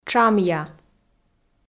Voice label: "тшамъя", Komi

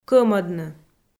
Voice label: "кӧмӧдны" Komi

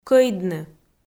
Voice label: "кӧйдны" Komi

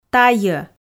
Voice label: "тайо", Komi

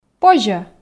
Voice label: "позьӧ", Komi

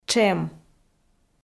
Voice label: "тшем", Komi

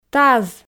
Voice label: "таз", Komi

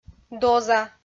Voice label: "доза", Komi

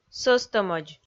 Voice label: "сӧстӧмӧдз", Komi

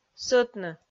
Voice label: "сӧтны", Komi

